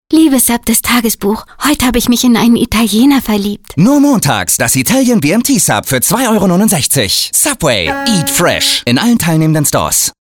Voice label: Werbung Funk; subway montag

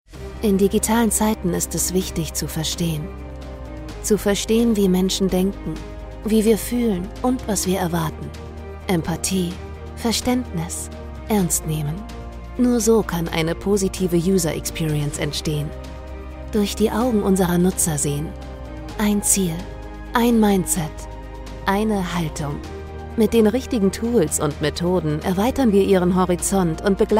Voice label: Imagefilm; Skoposnova

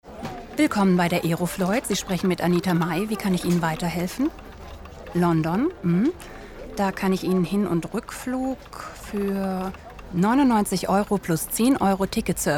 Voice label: Casting Werbung Szene

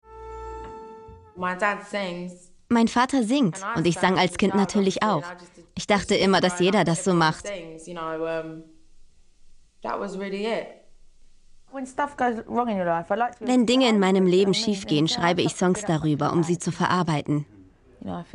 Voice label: doku

